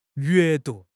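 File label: "yue4 du2", Mandarin Chinese; HSK4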